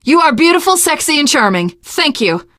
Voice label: reaction positive, teen girl; voice; source engine; left 4 dead 2; survivor

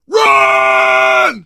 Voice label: emphatic run, survivor voice; source engine; left 4 dead 2

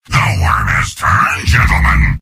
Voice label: soldier cheers; source engine; tf; mvm

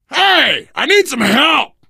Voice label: help, player survivor voice biker; source engine; left 4 dead 2

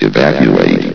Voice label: "evacuate", vox; Source Engine; bshift

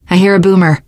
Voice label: heard boomer, teengirl; voice; source engine; left 4 dead 2; survivor

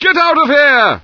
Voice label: fear; Source Engine; bshift; rosenberg

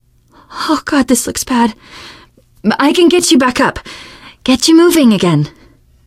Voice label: revive friend, teengirl; voice; source engine; left 4 dead 2; survivor

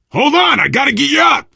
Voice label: revive friend loud, player survivor voice biker; source engine; left 4 dead 2

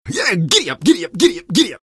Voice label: taunt cong fun; engy; tf; taunts

